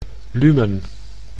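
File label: "lumen", Dutch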